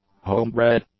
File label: "homebred", English; adjectives